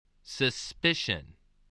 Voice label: "suspicion", English

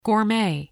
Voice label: "gourmet", spelling

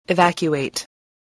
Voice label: "evacuate", voice, english

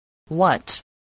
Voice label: "what", spelling

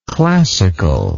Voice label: "classicalness", English

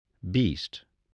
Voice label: "beast", English

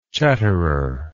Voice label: "chatterer", English

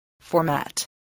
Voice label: "format", English voice, spelling

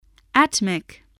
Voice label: "atmic", english

